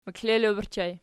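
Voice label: "meklelo" ergative plural, Archi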